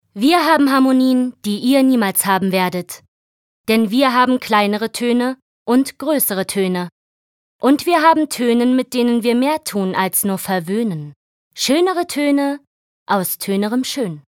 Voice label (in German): Schauspiel Lebendig